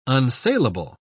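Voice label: "unsalable", English, British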